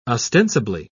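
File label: "ostensibly", British English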